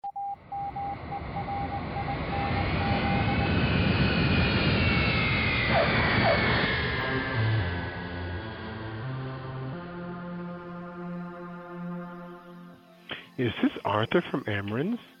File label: Podcast Interstitial